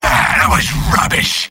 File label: sniper jeers; mvm